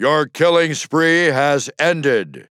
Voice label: Announcer Spree End